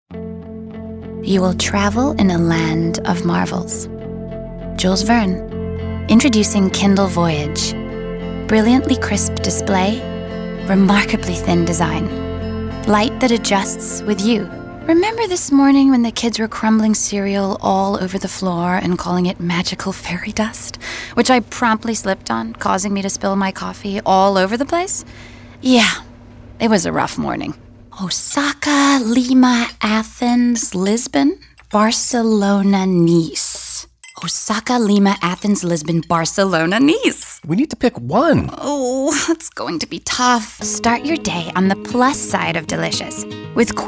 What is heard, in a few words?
commercial